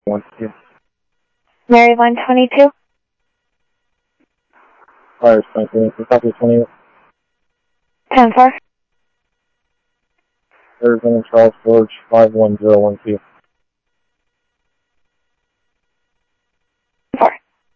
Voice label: Sheriff-EastCnty, scanner audio